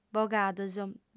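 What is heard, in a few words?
"bogados", female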